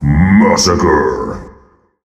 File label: kills, announcer, male